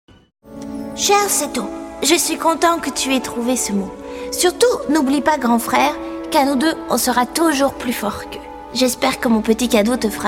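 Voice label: French, female, character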